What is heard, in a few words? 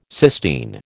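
"Sistine", American English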